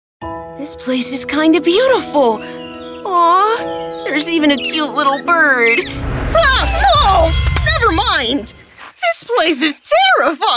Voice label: animation, Voice Actor